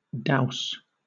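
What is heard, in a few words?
"douse", British English; pronunciations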